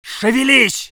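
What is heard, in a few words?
voice soviet infantry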